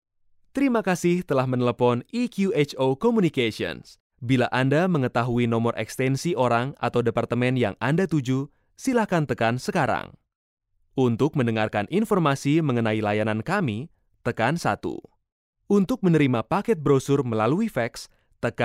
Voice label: IVR sample